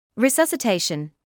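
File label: "resuscitation" English, British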